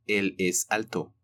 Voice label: "El es alto", Spanish